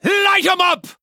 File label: Male Dwarf Attack